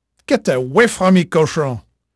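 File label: French male, voice; Pirates Of The Caribbean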